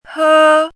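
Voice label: "hē", Chinese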